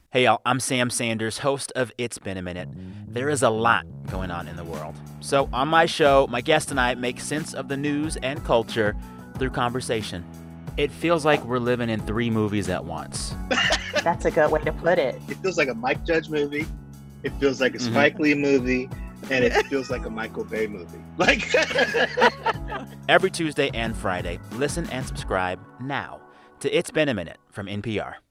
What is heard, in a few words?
commercials; bullseye with jesse thorn - npr